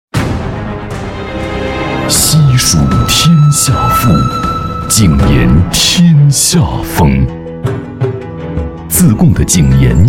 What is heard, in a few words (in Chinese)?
男; 驰宇盐品